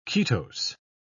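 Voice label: "ketose", British English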